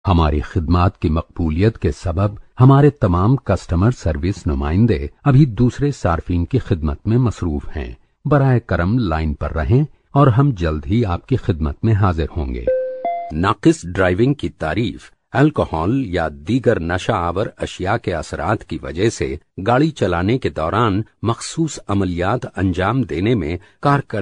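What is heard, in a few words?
UR M corporate, Urdu, male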